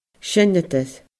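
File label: "seinneadair", Scottish Gaelic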